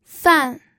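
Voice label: "fan", pinyin, fourth tone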